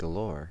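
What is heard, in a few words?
"galore", American English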